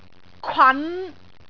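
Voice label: "kwan5", Cantonese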